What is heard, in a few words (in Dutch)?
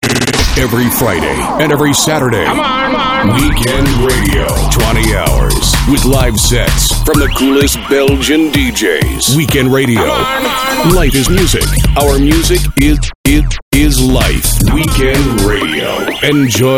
weekendradio promo; RNK